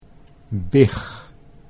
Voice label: "big", Dutch